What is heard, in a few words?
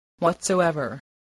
"whatsoever", spelling